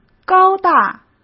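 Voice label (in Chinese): "高大", Mandarin Chinese; hsk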